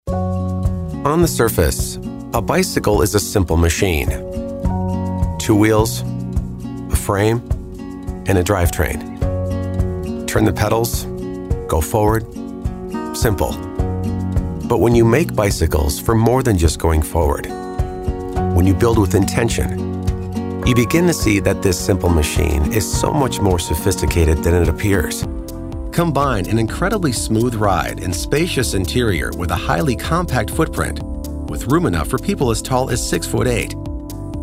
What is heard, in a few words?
Corporate Maketing